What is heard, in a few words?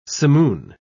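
"simoon", British English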